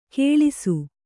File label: "ಕಳಸ", Kannada